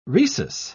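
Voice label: "rhesus", British English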